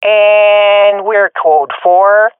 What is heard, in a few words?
round won quickly, swat fem; Counter Strike Model Pack; CS:GO